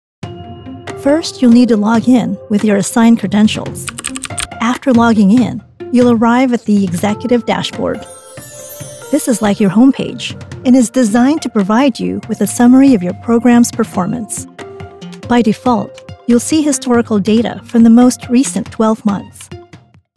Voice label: Explainer